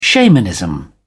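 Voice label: "shamanism", British English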